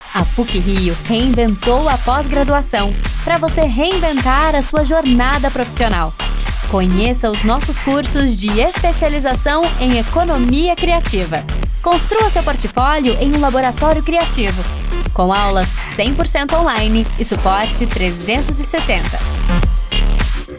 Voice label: Portuguese Brazilian

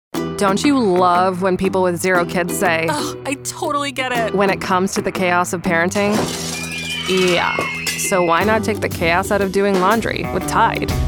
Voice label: english canadian commercial

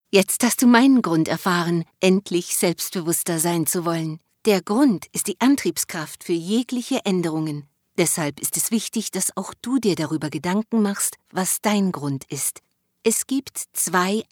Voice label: german narration

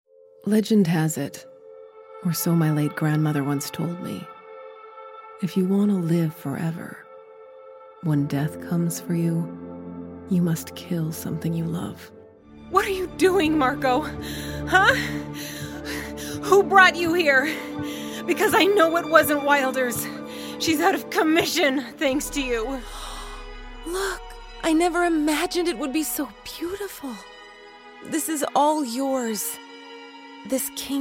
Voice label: english canadian video games

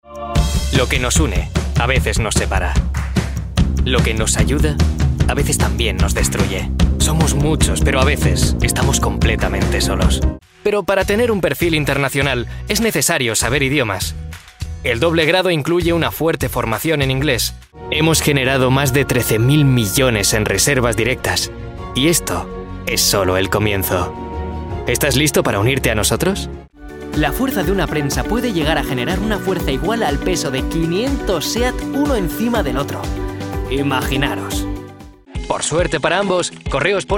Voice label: spanish corporate videos

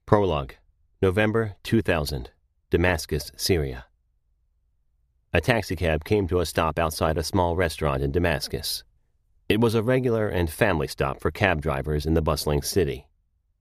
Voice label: english american audiobooks

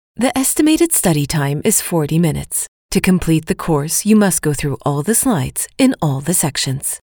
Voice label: english international e-learning

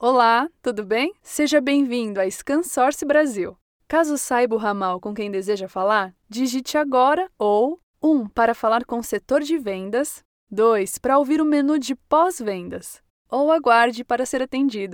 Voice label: portuguese brazilian ivr, voice actor

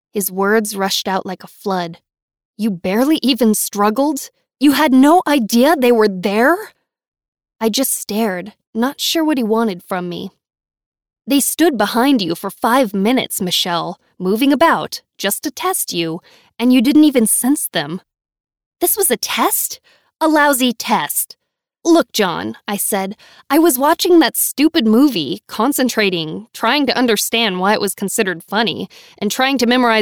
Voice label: English American audiobooks

